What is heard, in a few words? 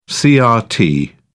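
"crt", British English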